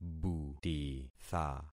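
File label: "butitha", concatenated syllables; Affixing experiment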